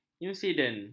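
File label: "insiden", Malay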